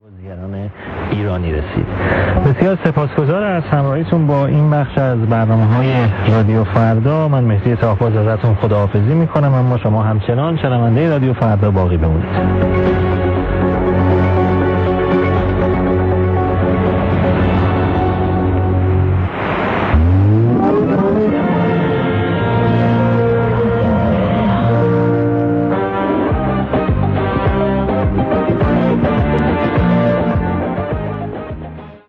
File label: 12005 kHz persiano; Radio Farda; Degen DE 1103; filo 5m indoor; St. Ursen